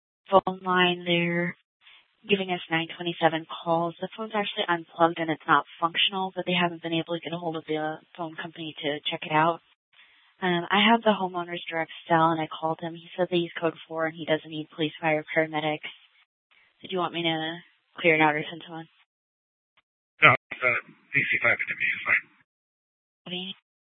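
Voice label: Police Department Dispatch, scanner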